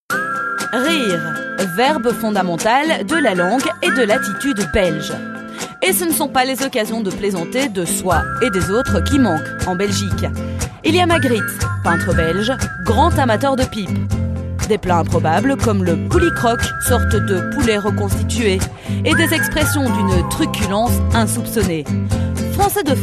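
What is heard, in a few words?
French Belgian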